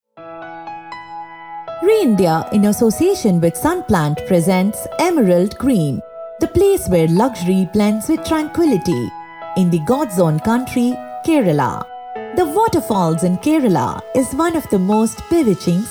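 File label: Corporate English, female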